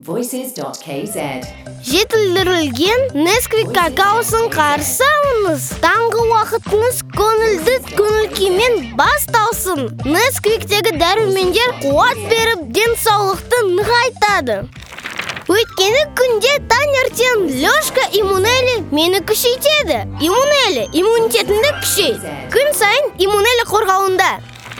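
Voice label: kid kazakh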